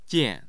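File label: "jian", Mandarin Chinese, tone 4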